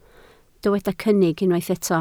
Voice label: "cynnig", Welsh

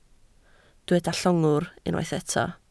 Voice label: "llongwr", Welsh

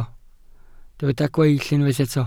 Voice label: "gweill", Welsh

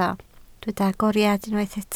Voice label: "agoriad", Welsh

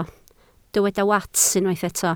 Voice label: "wats", Welsh

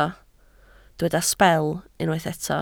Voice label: "sbel", Welsh

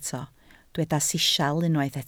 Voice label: "sisial", Welsh

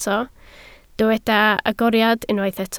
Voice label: "agoriad", Welsh

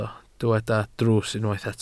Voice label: "drws", Welsh

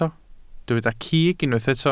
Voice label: "cig", welsh

